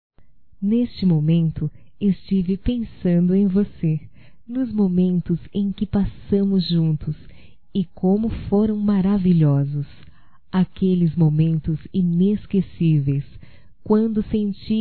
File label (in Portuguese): SENSUAL (F); Românticas